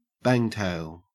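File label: "bangtail", Australian English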